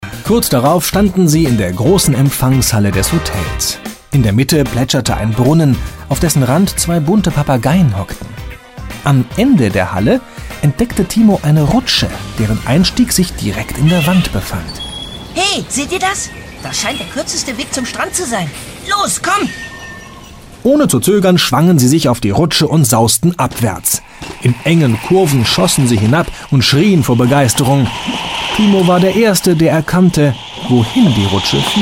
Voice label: male german audiobook; ideasonora

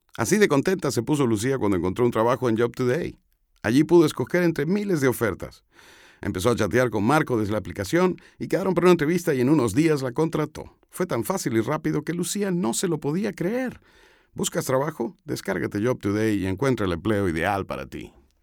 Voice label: male latam venezuelan comercial; ideasonora

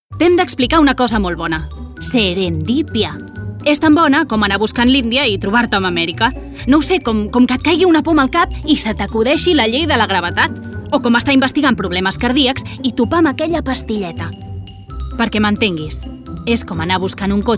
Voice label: female catalan comercial; ideasonora

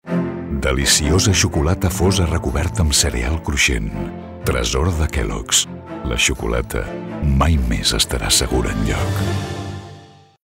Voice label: male catalan food comercial; ideasonora

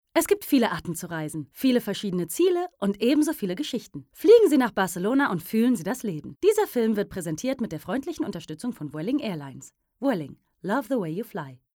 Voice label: female german travel comercial

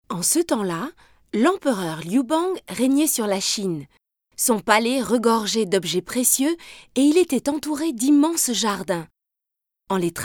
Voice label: female french documentary comercial; ideasonora

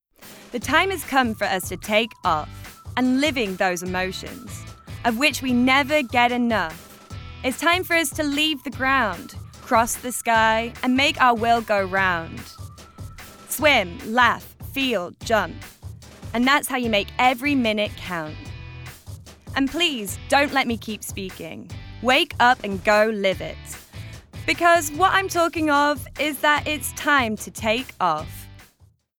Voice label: female english tv comercial; ideasonora

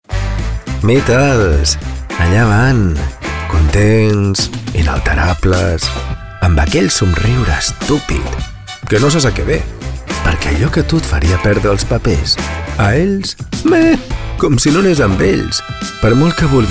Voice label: male catalan sex comercial

